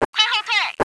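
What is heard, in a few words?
fallback, radio, Chinese woman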